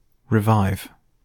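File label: "revive", British English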